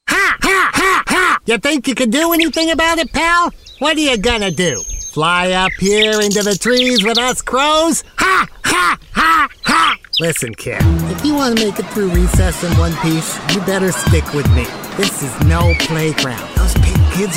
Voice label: Animation